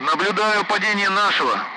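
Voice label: Speech, Russian; Messages; Allied Flight; member down; DCS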